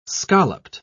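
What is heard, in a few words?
"scalloped", British English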